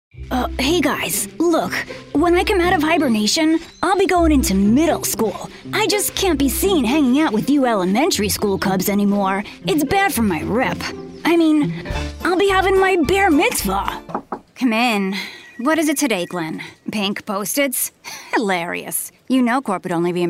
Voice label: Animation